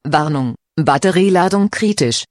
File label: Critical Battery, Voice, DE